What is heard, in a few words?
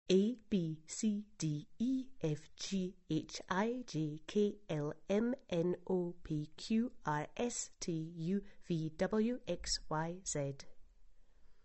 fast